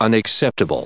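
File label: "unacceptably", US English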